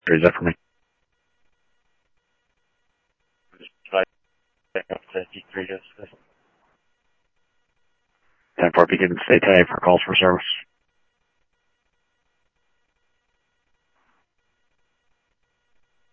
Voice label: Scottsdale Police, scanner audio; H-Scottsdale